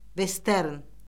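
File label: "vestern", Azerbaijani; Orfoqrafiya Lüğəti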